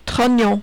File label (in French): "trognon", French